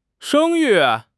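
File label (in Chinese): "生育", Chinese; HSK6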